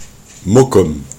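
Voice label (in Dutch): "mokum", Dutch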